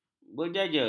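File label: "berjaja", Malay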